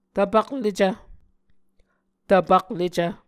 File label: "tapaklecv", Muscogee